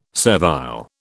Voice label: "servile", British

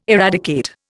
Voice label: "eradicate", Indian

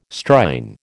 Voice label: "Strine", American

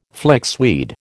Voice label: "flixweed", American

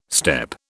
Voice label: "steppe", British

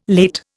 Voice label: "late", Indian

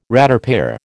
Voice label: "ratepayer", American

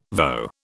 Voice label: "voe", British

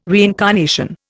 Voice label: "reincarnation", Indian

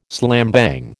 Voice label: "slambang", American

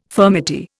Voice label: "furmety", Indian

